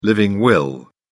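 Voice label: "living will", British English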